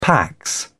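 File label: "pax", British English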